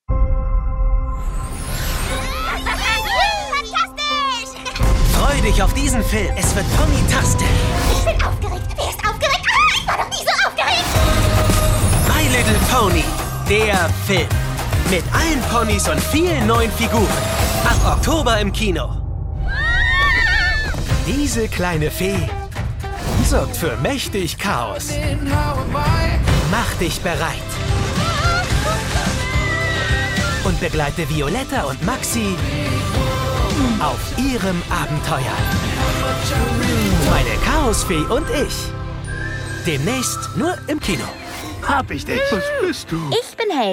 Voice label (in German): Kinotrailer